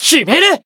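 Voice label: Voice finish, Japanese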